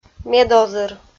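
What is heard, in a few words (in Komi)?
"медозыр", Komi, female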